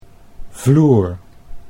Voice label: "vloer", Dutch